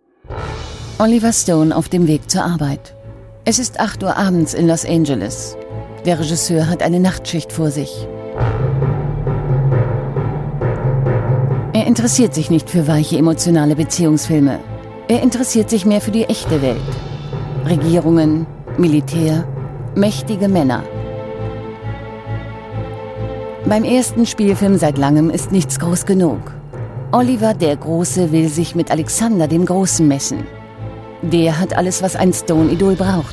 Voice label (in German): kommentar; Oliver Stone